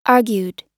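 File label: "argued", US English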